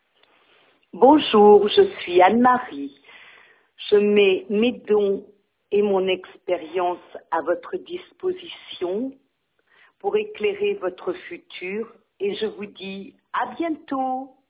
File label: voicemail